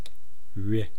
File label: "ri", east cree syllabics